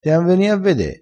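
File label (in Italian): "dean", dialettale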